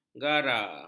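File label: "gara", Malay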